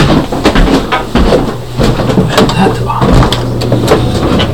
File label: Man's voice. Not english; evidence